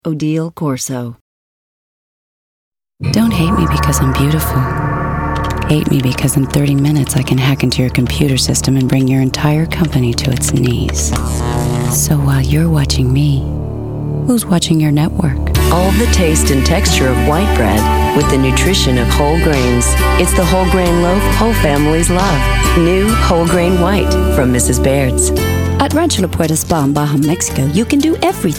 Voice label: commercial; Innovative LA